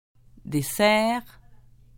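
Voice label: "dessert", French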